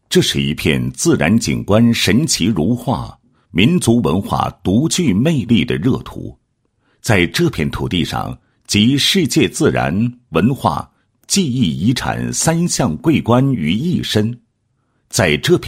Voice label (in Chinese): 男 教育专题 电视解说词; 义务教育均衡发展